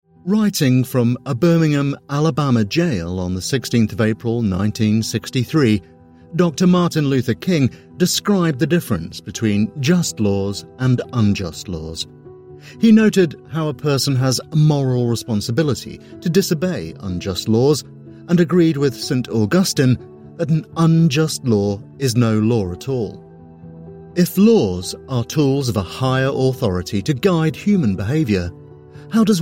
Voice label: documentary; British